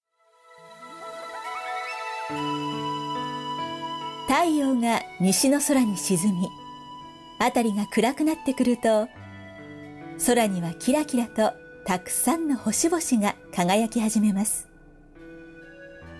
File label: narration